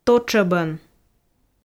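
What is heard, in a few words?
"тотшӧбӧн", Komi, female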